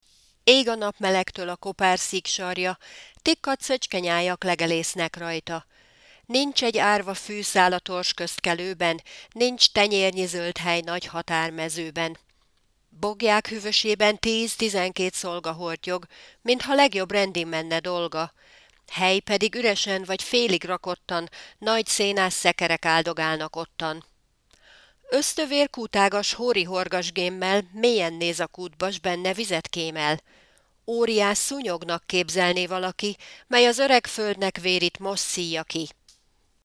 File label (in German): ungarisch